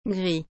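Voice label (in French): "gris", French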